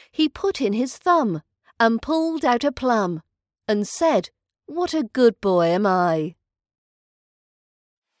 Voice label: little jack horner nursery rhyme british accent pronunciation practice audio